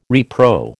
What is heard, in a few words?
"repro", American